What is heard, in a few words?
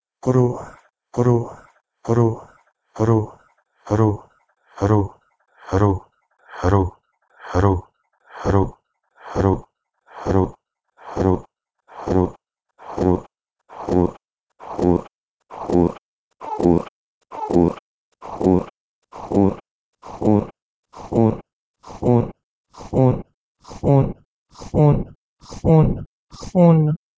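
Proto-Indo-European kruh2 to Persian xun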